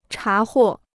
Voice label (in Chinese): "查获", Chinese